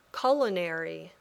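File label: "culinary", English word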